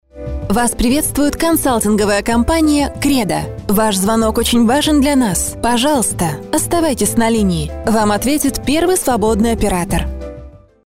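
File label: Russian Russia female upbeat; russian voice over talent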